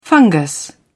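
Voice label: "fungus", British English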